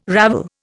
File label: "ravel", Indian